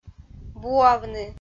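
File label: "буавны", Komi, female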